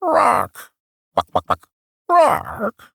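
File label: Barks Bird, English; Warframe; Entrati Cavia Vendors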